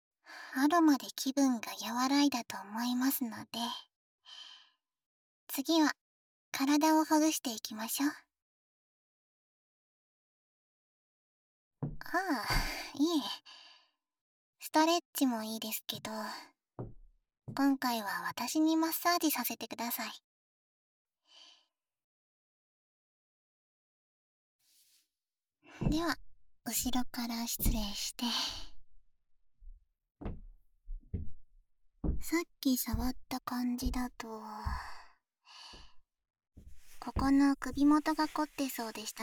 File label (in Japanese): マッサージとヘッドスパワイヤーとマッサージボール, ASMR; 癒し上手なノノさん2